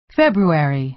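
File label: "february", American English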